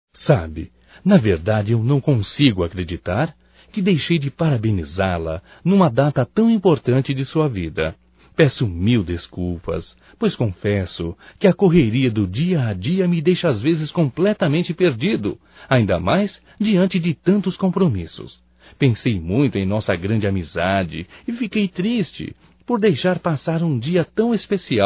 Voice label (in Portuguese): Aniversário Atrasado Amiga Masculino; Aniversarios; Telemensagens 2017